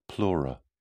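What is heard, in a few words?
"pleura", British English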